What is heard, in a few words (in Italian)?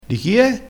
"di chie", crespinese